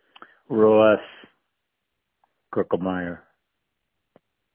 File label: voicemail